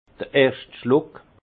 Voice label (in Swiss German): "erschtschluck", alsace; vin